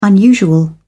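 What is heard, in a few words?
"unusual", English, British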